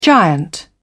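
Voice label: "giant", British English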